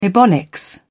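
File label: "ebonics", British English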